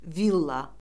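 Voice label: "villa", Azerbaijani; Orfoqrafiya Lüğəti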